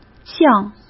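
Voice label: "酱", Mandarin Chinese; chinese flashcards; hsk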